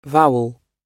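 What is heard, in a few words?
"vowel", English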